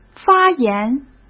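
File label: "发言", Mandarin Chinese; chinese-flashcards; hsk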